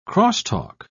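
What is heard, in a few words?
"crosstalk", British English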